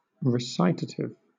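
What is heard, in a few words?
"recitative", British; adjective; pronunciations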